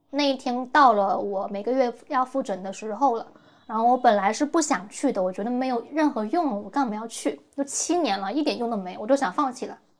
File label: chinese female